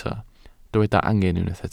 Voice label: "angen", Welsh

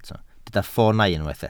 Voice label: "ffoonau", Welsh

